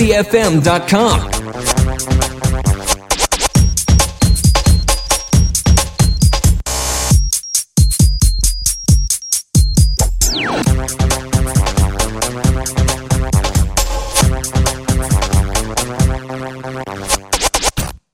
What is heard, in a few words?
SPORTS JINGLES